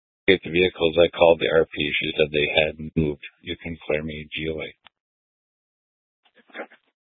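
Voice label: Incident Tactical 3, Scanner audio recording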